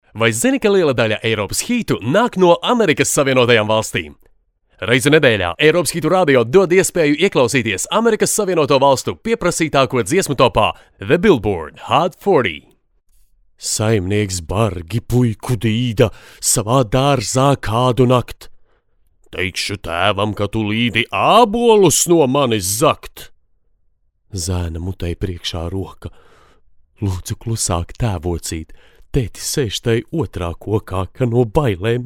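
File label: Latvian male narration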